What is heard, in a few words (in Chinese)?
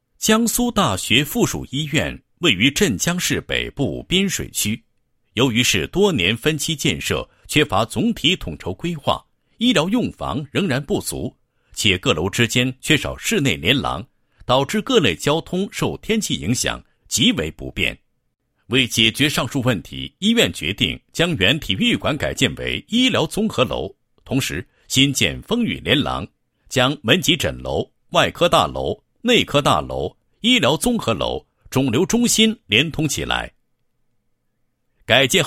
男 项目 方案; 江苏大学附属医院医疗综合楼改建